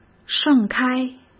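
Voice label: "盛开", Mandarin Chinese; hsk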